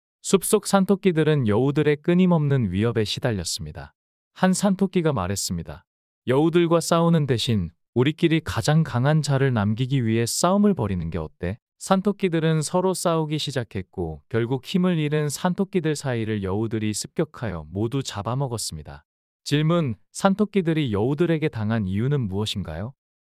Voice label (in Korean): 이야기 남자; 산토끼들과 여우들